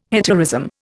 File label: "hetaerism", Indian